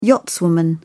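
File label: "yachtswoman", British English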